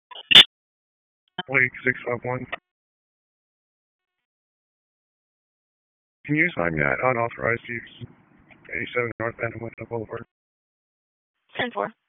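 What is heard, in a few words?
Dispatch, p25; WSO DISP 1; wake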